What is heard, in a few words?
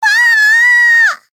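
encounter fear; white nopou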